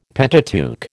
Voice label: "Pentateuch", American